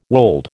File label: "wold", American